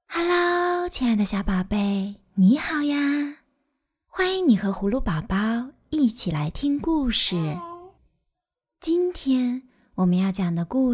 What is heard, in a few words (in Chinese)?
儿童挑食的小贝贝